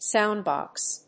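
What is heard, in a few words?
"sound box", English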